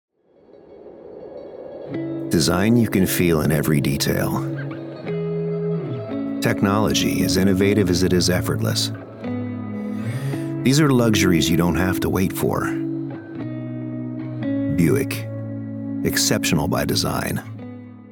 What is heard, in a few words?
Buick Commercial